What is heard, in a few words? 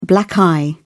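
"black eye", British English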